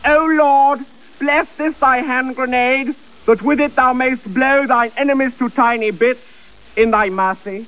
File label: male taunt; starsiege